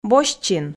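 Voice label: "босьтчин", Komi, female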